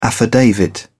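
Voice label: "affidavit", English, uk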